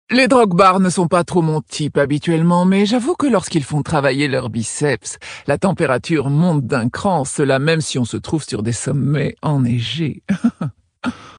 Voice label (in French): VF Female Flirt; Tauren Haut Roc; Temperature